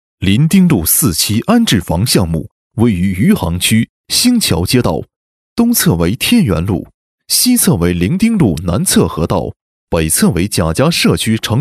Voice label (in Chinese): 男 项目介绍 安置房项目设计; 临丁路四期安置房